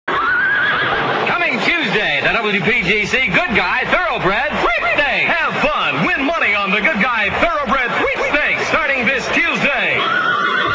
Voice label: thorobred sweepstakes tease; 1966